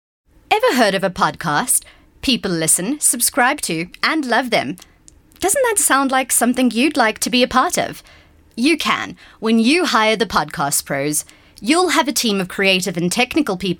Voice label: English Corporate